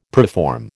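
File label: "preform", American English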